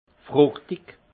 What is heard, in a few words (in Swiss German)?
"fruchtig", alsace; fruité; vin